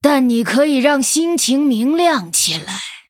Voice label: Female Night Elf Mirror Greetings, zh

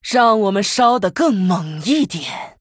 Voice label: Female Human TRIGGER Wildfire; zh

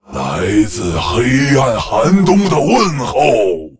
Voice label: Male Lich Greetings; zh

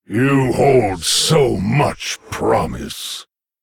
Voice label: Male Lich Start; en

